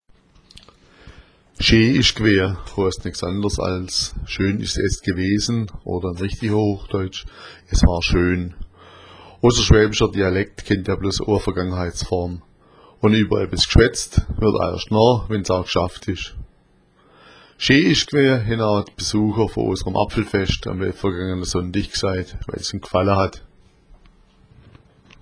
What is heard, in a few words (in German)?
schee, schwaebisch